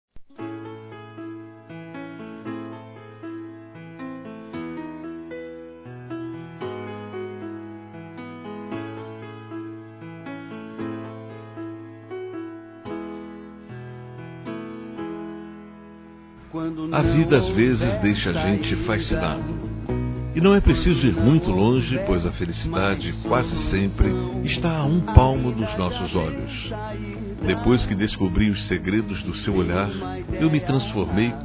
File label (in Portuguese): CONQUISTA M; Telemensagens; Conquistas Cantadas paqueras; masc; Telemensagens 2017